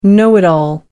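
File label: "know-it-all", English, British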